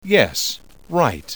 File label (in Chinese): 男生 YES